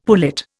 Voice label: "pullet", Indian; Dictionary